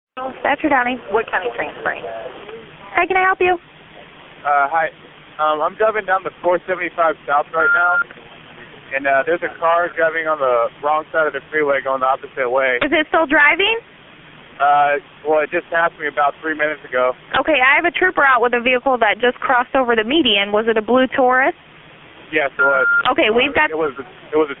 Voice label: 911 call